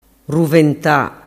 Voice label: "ruventa", dialettu